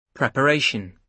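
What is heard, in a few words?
"preparation", British English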